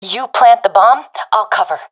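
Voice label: friend plant bomb, professional female; vo; agents; Source Model Packs; Counter Strike Model Pack; CS2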